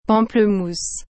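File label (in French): "pamplemousse", French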